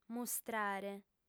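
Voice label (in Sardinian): "mustrare", female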